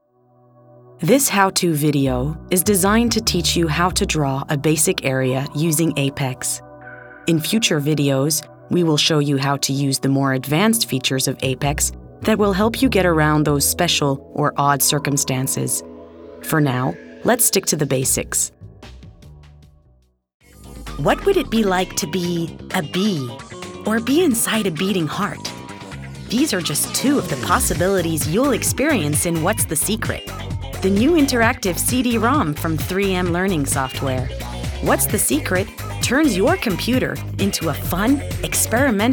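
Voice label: English US General; GoLocalise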